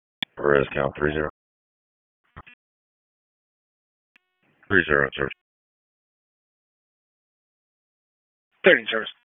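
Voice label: Wake County EMS Dispatch, p25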